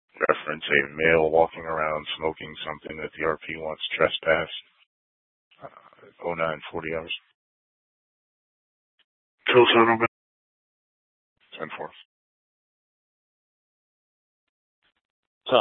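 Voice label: Scottsdale Police South Dispatch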